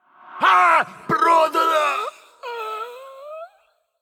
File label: Male Undead Death